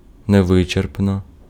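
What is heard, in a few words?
Ukrainian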